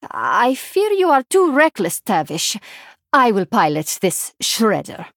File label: Female Draenei